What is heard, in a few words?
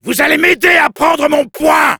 help me capture, fr; Soldier